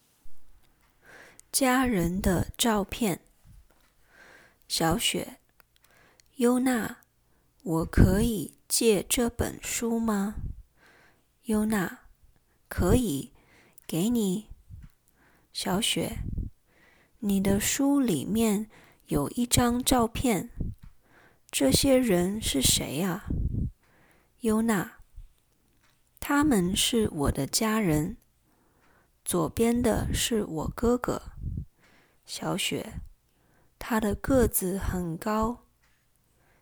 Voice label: conversation, mandarin; mandarin lesson materials